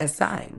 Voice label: "assign", Medical English; əˈsaɪn; uh-SIGN